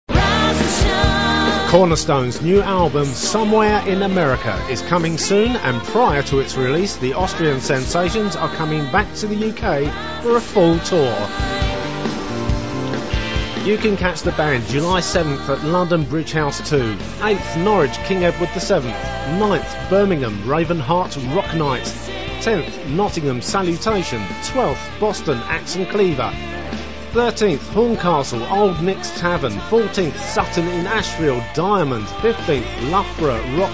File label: radio commercial uk